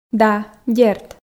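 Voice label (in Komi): да дерт, Komi, female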